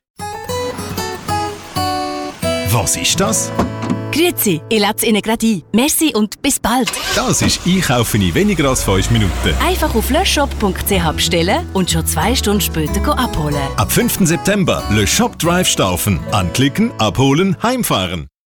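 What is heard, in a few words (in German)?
Radio Spot Dialekt Zürich, sprecherin; Le Shop Drive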